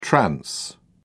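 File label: "trans", British English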